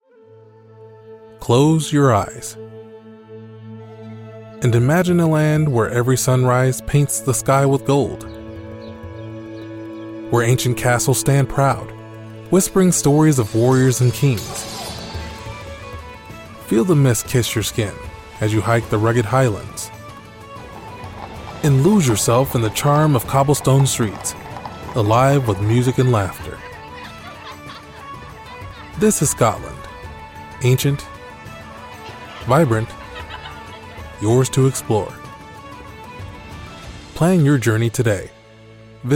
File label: Tour Guide, Friendly, conversational, approachable Male Voice; Scotland; Destination Spot